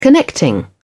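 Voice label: "connecting", British English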